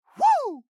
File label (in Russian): "Вуху!", Фраза; Лусио